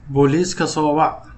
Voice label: police, somali